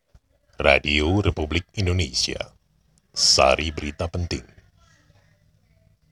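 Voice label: Indonesia formal, voice over, male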